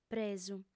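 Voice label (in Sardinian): "presu", female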